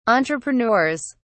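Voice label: "entrepreneurs", English, British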